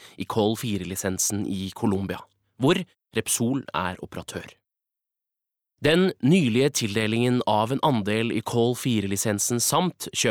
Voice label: Norwegian informativt, male